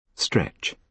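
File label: "stretch", British English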